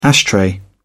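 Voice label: "ashtray", English, British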